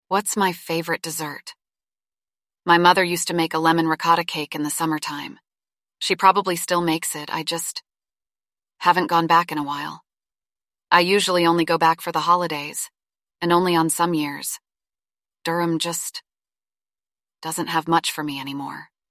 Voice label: synthesized audio